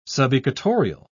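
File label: "subequatorial", British English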